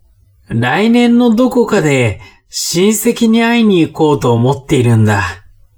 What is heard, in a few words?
man